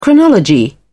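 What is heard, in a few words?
"chronology", British English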